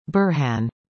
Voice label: "Burhan", english; indonesian boy names

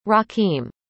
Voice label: "Rakeem", arabic; indonesian boy names

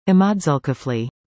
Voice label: "ahmad zulkifli", english; indonesian boy names

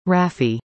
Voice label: "raffi", english; indonesian boy names

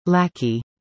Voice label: "Laki", english; indonesian boy names

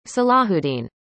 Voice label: "salahuddin", arabic; indonesian boy names

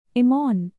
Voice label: "Imon", hindi; indonesian boy names

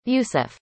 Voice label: "Yusuf", arabic; indonesian boy names

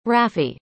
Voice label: "rafi", arabic; indonesian boy names

